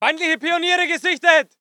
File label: voice ger infantry